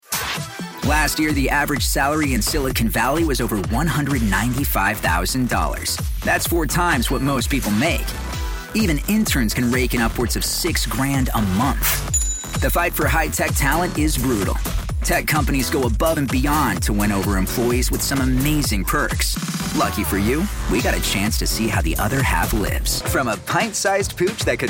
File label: Narration